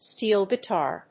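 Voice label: "steel guitar", English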